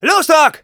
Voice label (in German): Scout activate charge, de